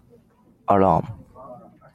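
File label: "alarm", JPN, male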